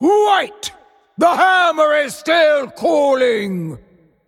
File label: Female Giant